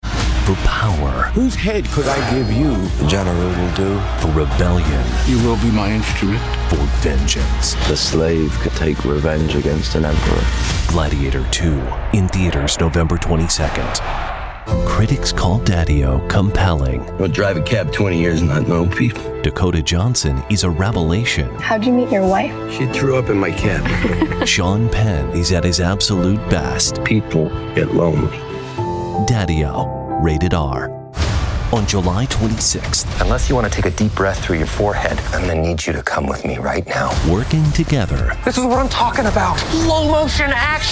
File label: trailer